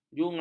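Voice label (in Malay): "juga", Malay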